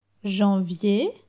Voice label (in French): "janvier", francais; vocabulaire; le lexique du temps